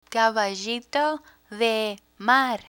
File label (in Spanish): "caballito de mar", Spanish; spanish verbs; learn spanish